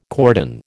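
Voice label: "cordon", American English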